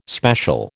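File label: "special", American English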